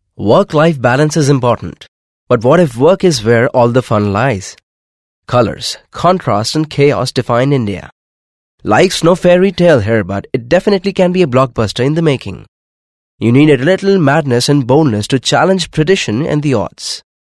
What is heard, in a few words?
English, male voice